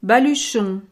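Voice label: "baluchon", French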